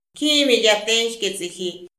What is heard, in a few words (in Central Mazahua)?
kjimidya tenx'k'es'iji, Mazahua; INALI; AprendeMazahua